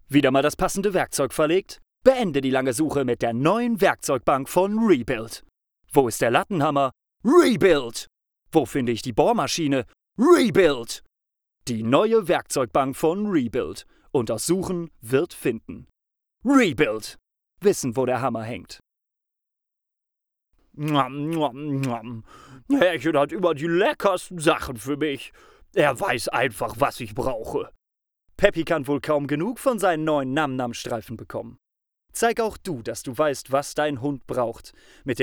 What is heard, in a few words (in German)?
Werbung